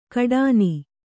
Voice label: "ಕಡಾನಿ", kannada